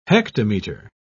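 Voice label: "hectometre", British English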